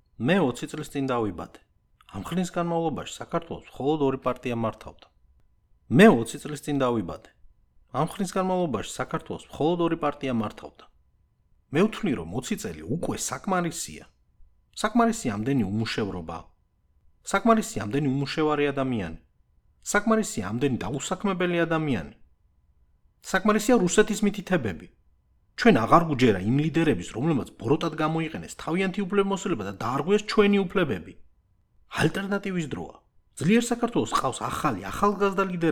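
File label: Georgian, General; GoLocalise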